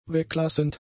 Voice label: "weglassend", German; adjectives

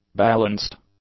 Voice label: "balanced", English; adjectives

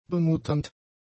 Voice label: "bemutternd", German; adjectives

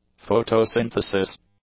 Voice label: "photosynthesis", English

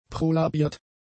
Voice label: "prolabiert", German; adjectives

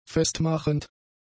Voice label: "festmachend", german; adjectives

